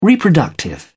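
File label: "reproductive", British English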